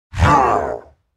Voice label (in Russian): ork govorit yes